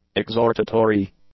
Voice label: "exhortatory", english; adjectives